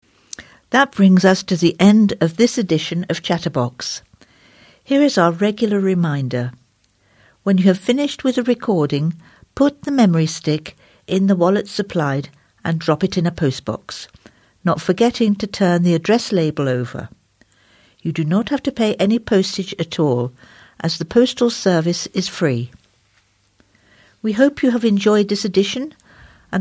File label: Closing Announcement